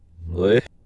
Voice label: pill reverse

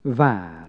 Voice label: castle, hungarian vowels

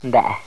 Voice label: creaky, mazatec

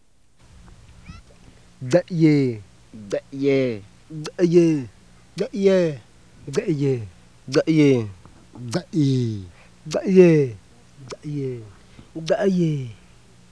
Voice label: xoo plain laryngealized

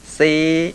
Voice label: high level tense, mpi

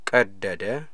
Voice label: he tore something, amharic

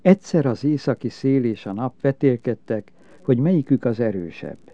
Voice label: narrative, hungarian

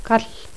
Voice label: voiceless dental lateral, toda

